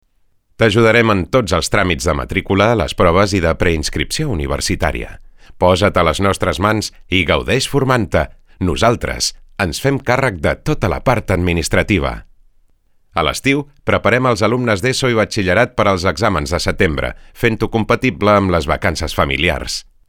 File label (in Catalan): E-LEARNING Catala VO